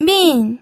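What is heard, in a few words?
"min", fourth tone, pinyin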